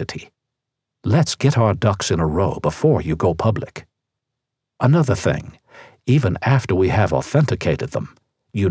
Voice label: british wine expert